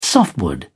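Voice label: "softwood", British English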